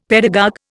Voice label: "pedagogue", Indian; Dictionary